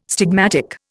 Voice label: "stigmatic", Indian